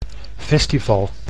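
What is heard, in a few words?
"festival", Dutch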